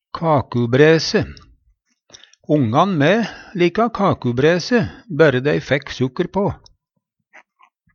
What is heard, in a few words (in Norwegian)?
kakubræse, Numedalsmål; Hushald, mat, drikke